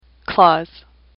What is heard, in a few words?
"clause", English; AWL